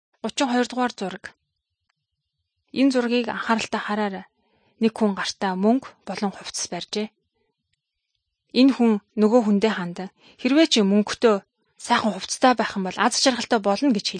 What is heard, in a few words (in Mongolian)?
good news mongolian